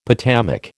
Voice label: "potamic", American